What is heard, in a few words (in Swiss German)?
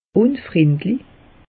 "unfrendlich", alsace; meteo